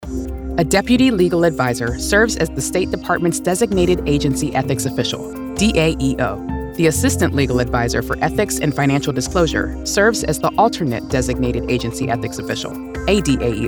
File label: Govt Formal Authoritative Informative Government Corporate Narration